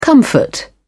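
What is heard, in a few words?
"comfort", British English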